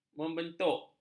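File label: "membentuk", Malay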